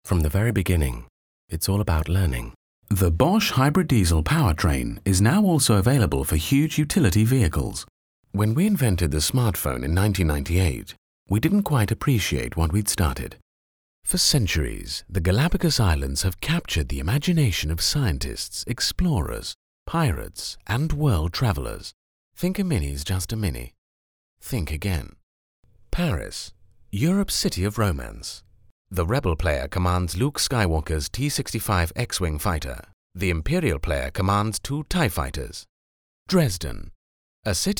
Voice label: Dry